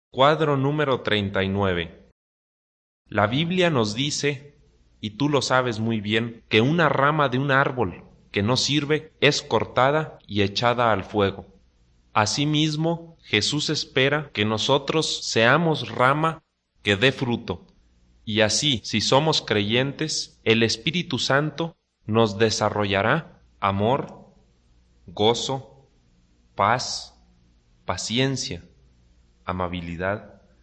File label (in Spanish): good news spanish mexico